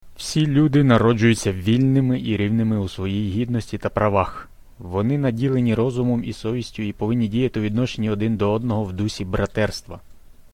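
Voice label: udhr uk, Ukrainian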